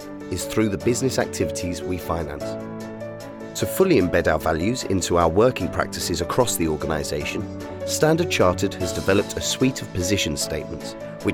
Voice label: e-learning, A Male British Voice for Narration, E-Learning; Corporate; Audiobook and Documentary Narration; Corporate Explainers; Radio & Television